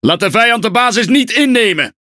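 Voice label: NL Speech Quick Commands Ally Base, Dutch; World Of Warships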